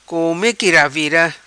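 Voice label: "Come Qui Ravira", patua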